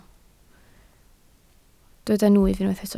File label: "nwyf", Welsh